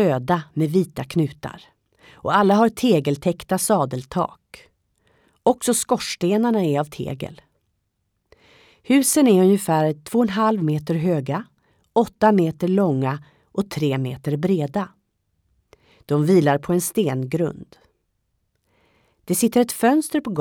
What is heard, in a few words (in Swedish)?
syntolkning lurgatan; uto